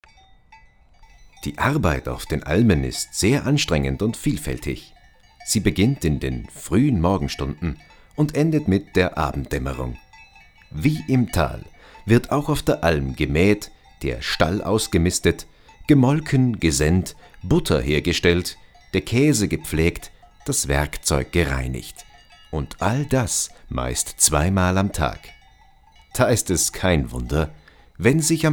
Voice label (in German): Doku; Alm